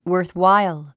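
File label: "worthwhile", English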